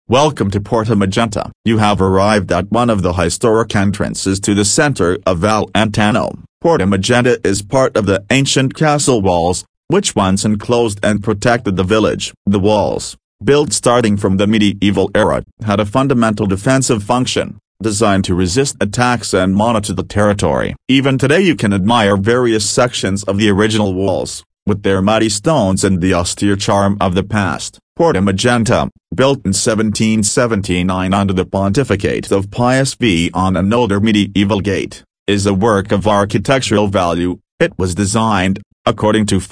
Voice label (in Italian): Inglese; Porta Magenta